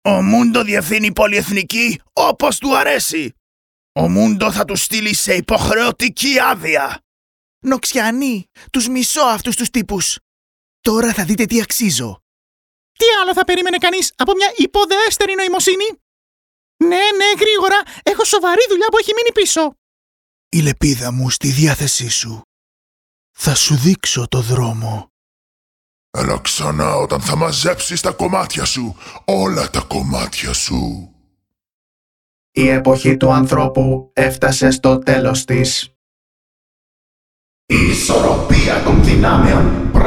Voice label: Greek cartoon animation